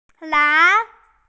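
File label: "lai2", Mandarin Chinese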